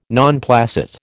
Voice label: "non placet", American